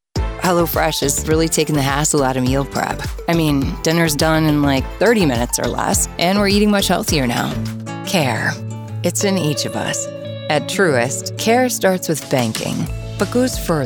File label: Commerical, femalevoices